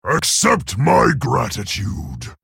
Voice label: male orc thanks